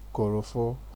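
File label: "korofo", Nigerian Pidgin, male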